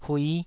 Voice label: "hui5", Taiwanese syllable

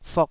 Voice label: "fok2", taiwanese syllables

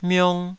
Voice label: "miong2", Taiwanese

